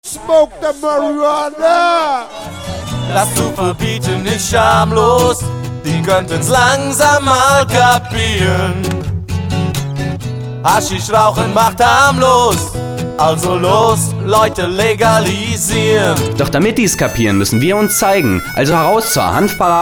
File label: radio jingle; Hanfparade 1999